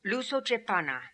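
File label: "Loosochepana", pronunciations; Fourth Way; Beelzebub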